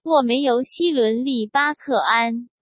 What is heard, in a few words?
sent zh-CN